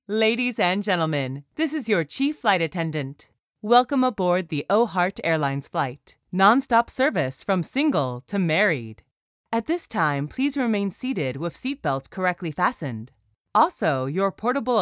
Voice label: 外籍英女 航空公司语音播报

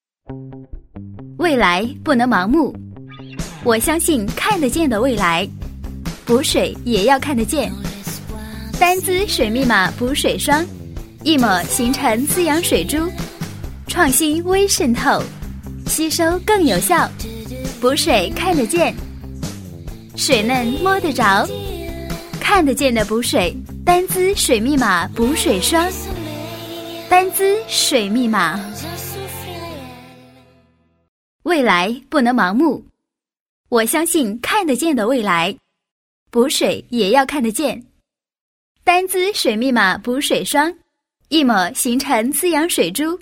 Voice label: 女; 丹姿水密码

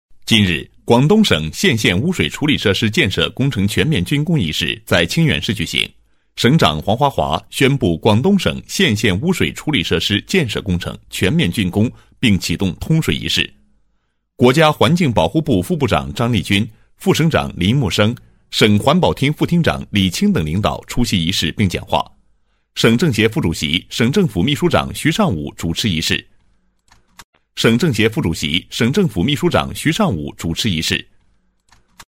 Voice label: 男 新闻, 国语男声配音; 新闻(广东)

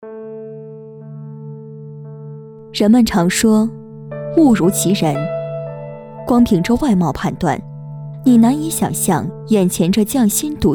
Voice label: 女 人物解说

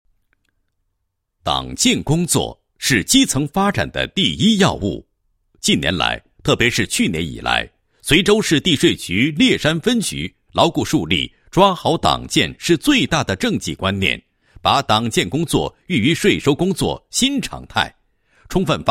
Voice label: 男, 党建 党建工作侧记; 随州市地税局烈山分局

